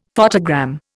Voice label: "photogram", Indian; Dictionary